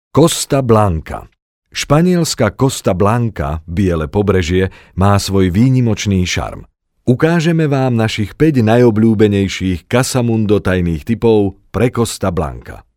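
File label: Slovak, male, narration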